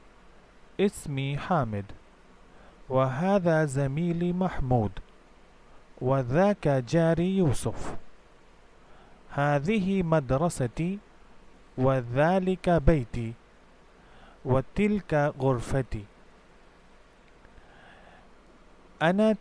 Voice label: arabic basic listening practice